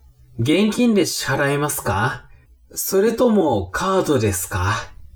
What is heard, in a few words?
man